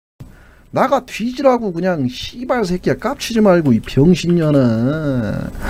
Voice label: scout cheers

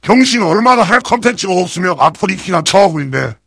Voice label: heavy jeers

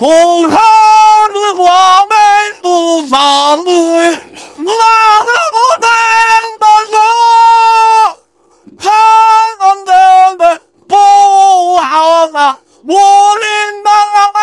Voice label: scout cheers

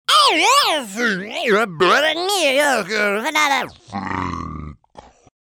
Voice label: battlecry; voice packs; demoman

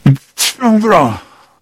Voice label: negative vocalization; sniper

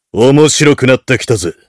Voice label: heavy battlecry

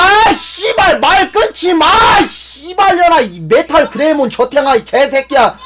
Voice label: scout jeers

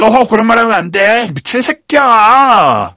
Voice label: jeers; sniper

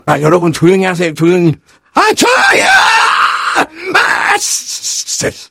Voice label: negative vocalization

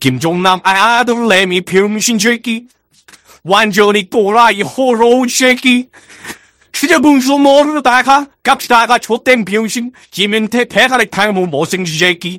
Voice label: scout cheers

